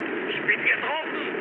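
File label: German aircraft destroyed mood med, speech; War Thunder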